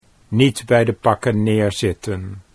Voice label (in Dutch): niet bij de pakken neerzitten, Dutch